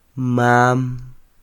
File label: "mam", Quiché; abuelo; grandfather; INALI; UTexas; CHQETA